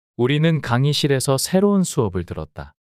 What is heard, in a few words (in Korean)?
우리는 강의실에서 새로운 수업을 들었다 문장 남자